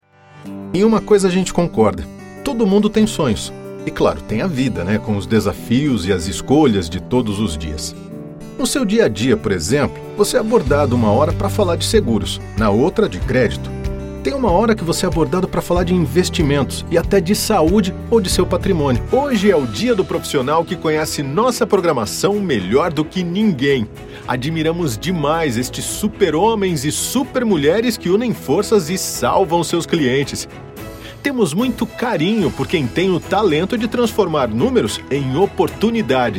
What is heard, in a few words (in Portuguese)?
Voz Natural